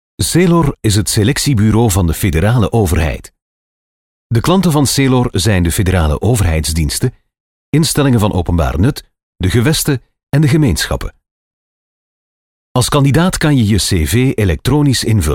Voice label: Voix flamande

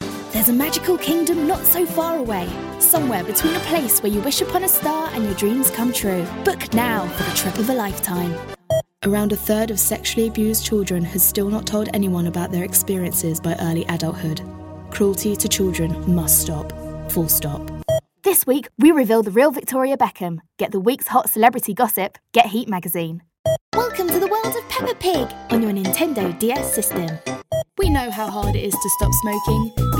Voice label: Voix anglaise

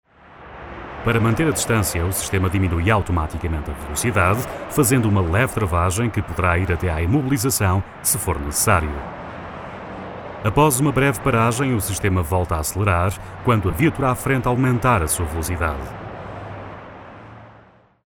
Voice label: Voix portugaise

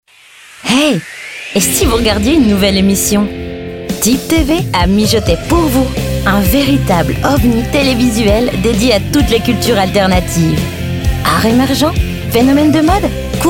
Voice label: Voix francophone

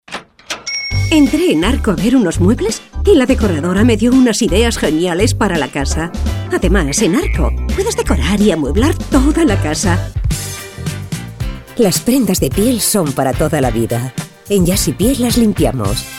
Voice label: Voix espagnole

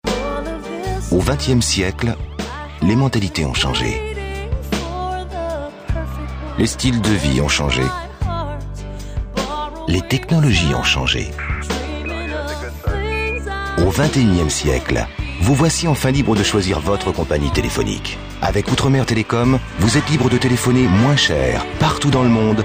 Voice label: Voix francophone

